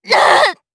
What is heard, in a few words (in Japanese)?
Damage, jp; kingsraid